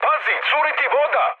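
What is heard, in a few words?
Serbian damaged water mood high; War Thunder; speech srb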